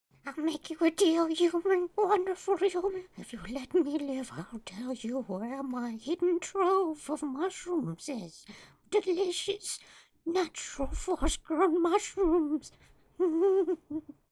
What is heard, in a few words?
Male Beg